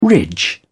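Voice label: "ridge", British English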